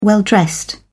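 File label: "well-dressed", British English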